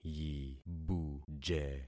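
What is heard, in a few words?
"yibuje", concatenated syllables; Affixing experiment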